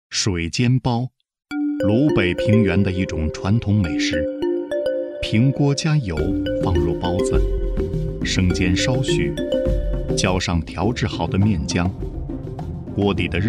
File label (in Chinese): 男 纪录片配音 舌尖; 水煎包; 男30-纪录片配音【水煎包 舌尖】